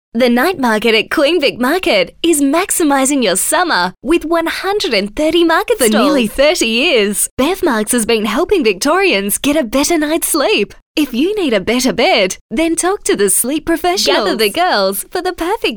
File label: Hard Sell, voice-overs